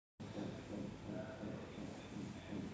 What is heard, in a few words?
voice message